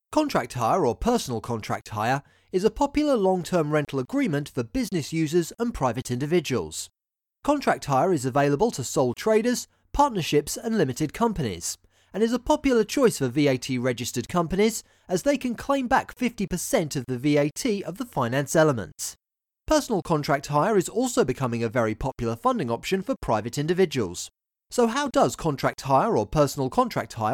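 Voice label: english male; lektoring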